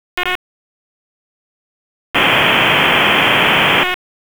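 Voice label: Police District Dispatch, p25; Raleigh Police North District Disp; wake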